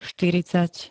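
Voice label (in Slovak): "štyridsať", Slovak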